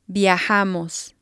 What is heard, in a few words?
"viajamos", Spanish